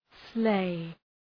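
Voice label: "sleigh", English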